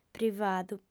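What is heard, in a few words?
"privadu", female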